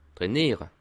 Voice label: "trainiere", alsace

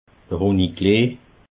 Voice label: "hunniklee", alsace

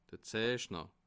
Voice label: "zeichner", alsace

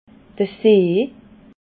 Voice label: "see", alsace

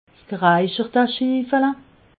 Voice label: "geraichertsschiffele", alsace

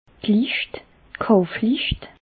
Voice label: "lischt", alsace

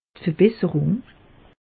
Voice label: "verbesserung", alsace; meteo

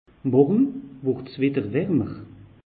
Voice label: "morje warmer", alsace; meteo

